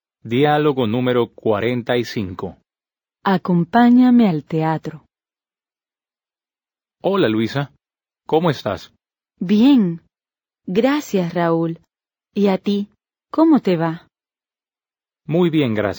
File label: Dialogue, Spanish